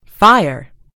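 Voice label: "fire", British English; gcompris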